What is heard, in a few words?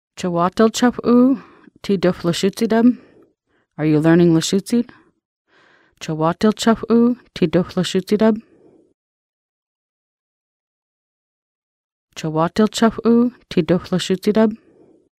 are you learning lushootseed, Lushootseed; čəwatil č̓əxʷ ʔu ʔə ti dxʷləšucidəb